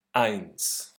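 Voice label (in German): "Eins", German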